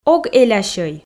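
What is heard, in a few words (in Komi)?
ог элясьӧй, Komi, female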